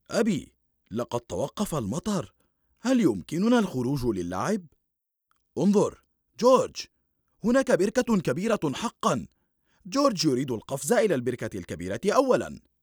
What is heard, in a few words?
Cartoon